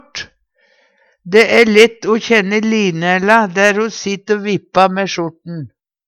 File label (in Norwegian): sjort, Numedalsmål; Substantiv hankjønn; Linerla æ lett kjenne der ho sitt o vippa mæ sjorten.